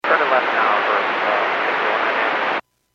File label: Airports 122.7, Radio; KSTE